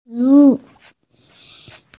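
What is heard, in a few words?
"food", Mandarin, hearing-impaired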